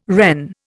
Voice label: "Wren", Indian

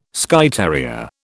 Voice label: "Skye terrier", British

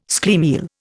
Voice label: "schlemiel", Indian

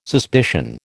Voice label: "suspicion", American

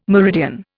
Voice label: "meridian", Indian

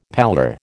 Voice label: "pallor", American

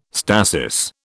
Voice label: "stasis", British

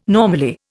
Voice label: "normally", Indian

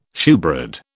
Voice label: "shewbread", British

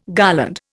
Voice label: "garland", Indian

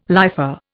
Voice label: "lifer", Indian

